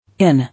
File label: "Inn", English